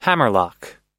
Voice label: "hammerlock", pronounce